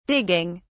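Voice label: "digging", en, British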